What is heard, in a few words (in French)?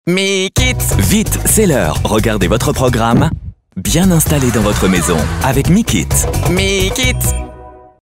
souriant, comédien voix off, souriant & perroquet; MIKIT